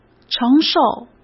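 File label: "承受", Mandarin Chinese; chinese flashcards; hsk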